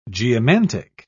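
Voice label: "geomantic", British English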